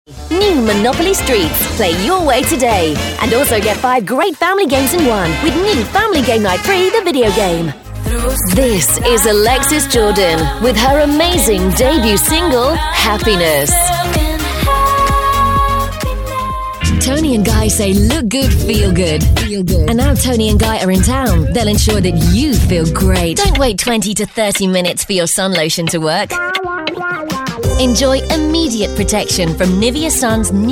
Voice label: Commercials